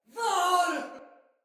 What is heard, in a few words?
Attack Normal Soldier Female; Battle; Barks; Dialog; Sinecure Soldier Female B